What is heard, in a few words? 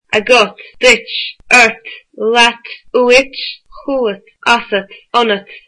you, irish